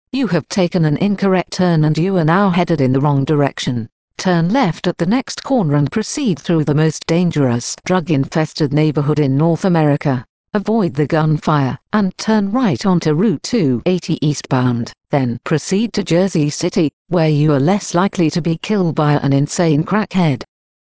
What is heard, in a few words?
british gps voice